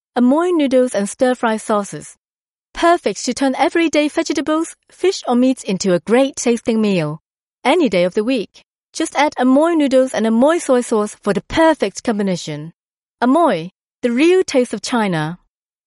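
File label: Chinese Cantonese, English, female; voice over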